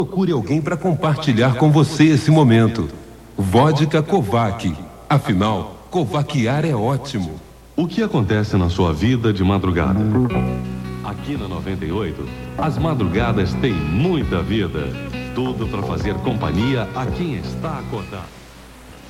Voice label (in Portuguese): comercial; Globo FM 98 Rio